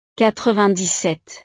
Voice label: "quatre-vingt-dix-sept", frances

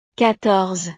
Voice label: "quatorze", frances

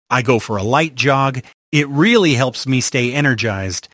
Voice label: dialogo, ingles; work out; phrasal verbs

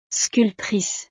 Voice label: "sculptrice", frances; profesiones

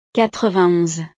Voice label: "quatre-vingt-onze", frances

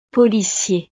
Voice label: "policier", frances; profesiones

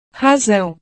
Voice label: "razão", Portugués; razón

